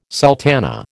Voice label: "sultana", American